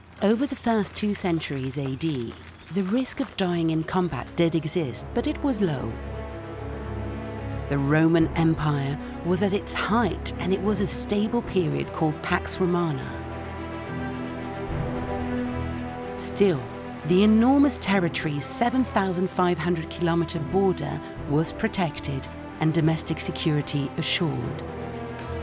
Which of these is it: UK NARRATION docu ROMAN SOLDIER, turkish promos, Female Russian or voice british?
UK NARRATION docu ROMAN SOLDIER